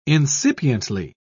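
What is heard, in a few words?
"incipiently", British English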